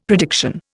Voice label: "prediction", Indian; Dictionary

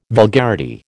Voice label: "vulgarity", American

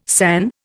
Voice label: "san", Indian

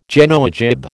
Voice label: "Genoa jib", American

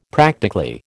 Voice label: "practically", American

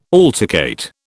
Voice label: "altercate", British

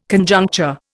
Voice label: "Conjuncture", Indian

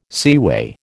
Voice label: "seaway", American

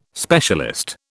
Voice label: "specialist", British

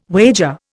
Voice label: "wager", Indian

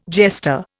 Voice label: "jester", Indian

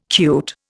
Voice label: "cute", Indian

